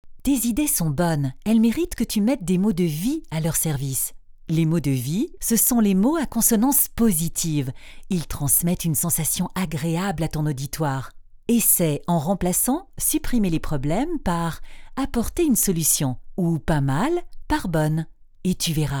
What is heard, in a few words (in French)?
voix feminine